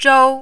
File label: "zhou1", mandarin